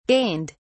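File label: "gained", British English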